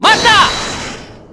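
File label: "Matta!", Japanese; Odoroki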